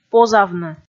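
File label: "позавны", Komi, female; kpv audio dict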